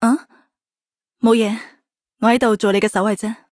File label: Cantonese; Hoshiguma